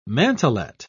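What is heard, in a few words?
"mantlet", English, British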